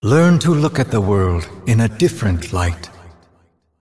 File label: Male Celestial Bark